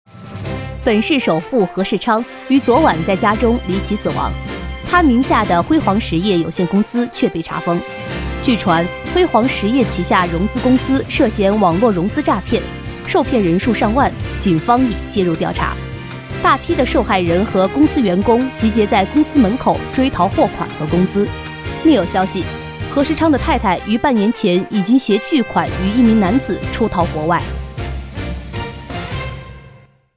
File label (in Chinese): 女 新闻 新闻风格, 国语女声配音; 新闻记者